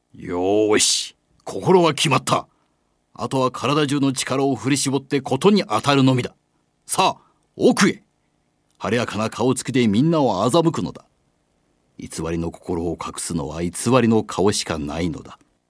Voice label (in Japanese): 号令